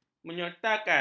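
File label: "menyertakan", Malay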